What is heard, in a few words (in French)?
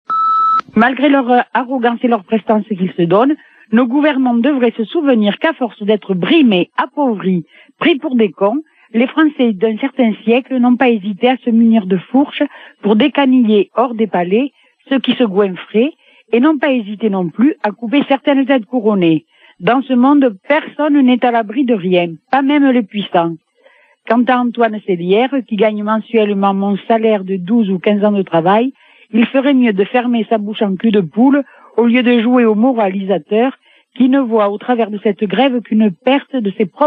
Manif retraites France d en haut repondeur